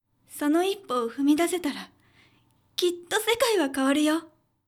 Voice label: josei sonoippowohumidasetarakittosekaihakawaruyo; 女性 「その一歩を踏み出せたら、きっと世界は変わるよ。」; ボイス

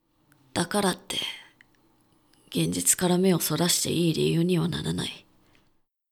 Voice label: dansei dakarattegenzitukaramewosorashiteiiriyuunihanaranai; 男性 「だからって、現実から目をそらしていい理由にはならない。」; クール; ボイス

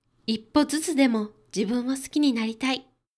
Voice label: 女性; 女性 「一歩ずつでも、自分を好きになりたい。」; ボイス

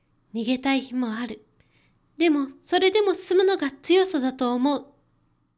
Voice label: パワフル女性; 女性 「逃げたい日もある。でも、それでも進むのが強さだと思う。」; ボイス

